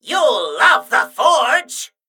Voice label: Male Mechagnome Attack

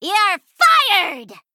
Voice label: Female Gnome Attack

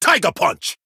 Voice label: Male Troll Attack

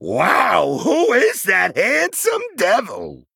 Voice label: Male Goblin Trigger

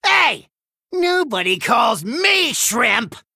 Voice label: Male Gnome; Scabbs; Mission7 Exchange B

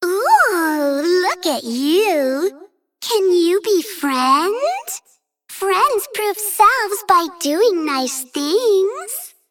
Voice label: Female Dragon Bark

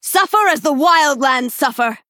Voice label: Female Dryad Threaten

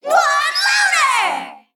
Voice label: Female Mech Attack

